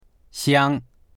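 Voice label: "xiang1", Mandarin Chinese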